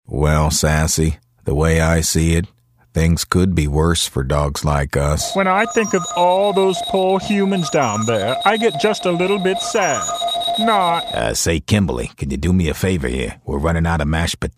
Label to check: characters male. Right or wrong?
Right